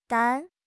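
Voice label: "dan1", Chinese; 汉语口语新时代-初级下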